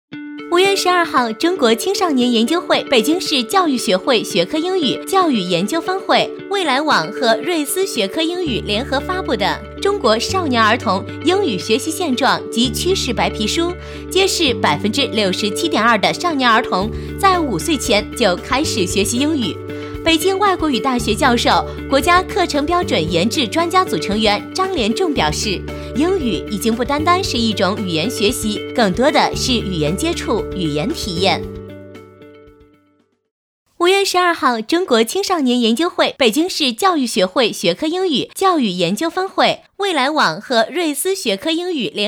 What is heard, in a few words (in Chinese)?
女 甜美欢快; 少儿英语白皮书